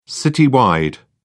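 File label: "citywide", British English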